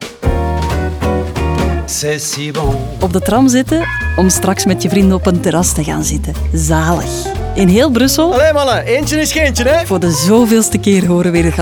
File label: radio; stib c si bon